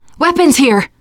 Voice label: spot weapons, teengirl, voice

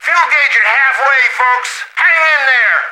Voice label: radio in transit; Left 4 Dead 2; left4dead2 dlc3; planepilot; npc

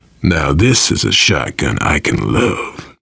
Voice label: take auto shotgun, voice, biker; Left 4 Dead 2; survivor

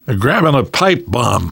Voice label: take pipe bomb, namvet, survivor voice; Left 4 Dead 2; left4dead2 dlc3

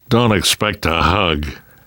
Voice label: you are welcome, namvet, survivor voice; Left 4 Dead 2; left4dead2 dlc3

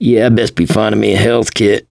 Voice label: going to die, survivor voice mechanic

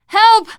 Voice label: help, teengirl, voice; player; survivor

